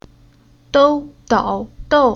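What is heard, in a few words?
"Dou", Chinese syllable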